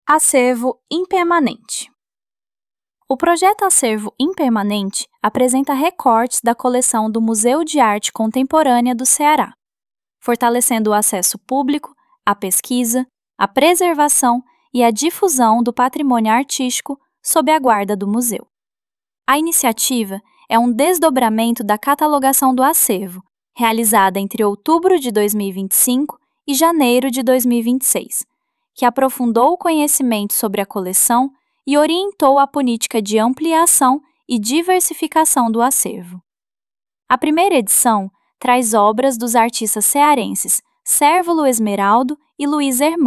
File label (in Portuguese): Narracao; Texto Curatorial; Acervo In Permanente